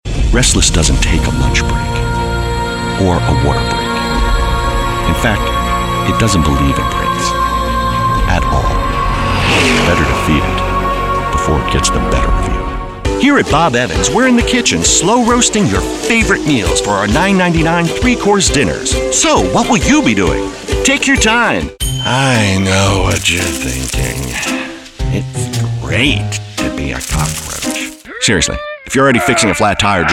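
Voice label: commercial, Voiceover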